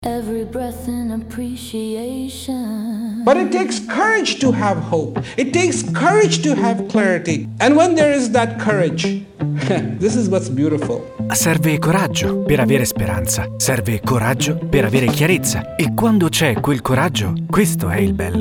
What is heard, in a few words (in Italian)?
Spot radiofonico; rassegna stampa sull'evento a Bologna 2026